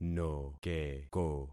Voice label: "nokeko", concat syllables; Affixing experiment

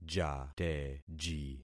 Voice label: "jateji", concat syllables; Affixing experiment

